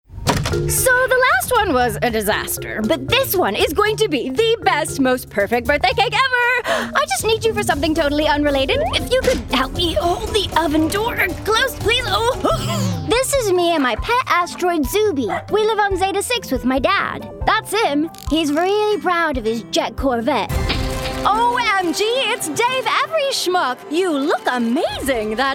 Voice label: animation us female comedy adult teen toddler boy accents energetic